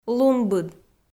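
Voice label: "лунбыд" Komi, female; kpv audio dict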